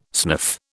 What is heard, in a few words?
"sniff", British